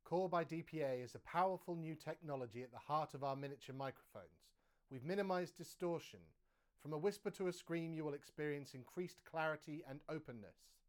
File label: NORMAL SPEECH